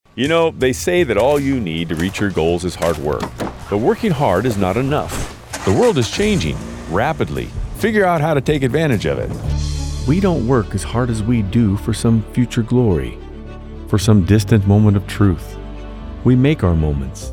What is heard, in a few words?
Commercial